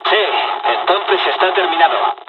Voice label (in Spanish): dialog, dialogs spanish; us midway; War Thunder